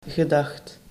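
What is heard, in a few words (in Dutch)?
"gedacht", Dutch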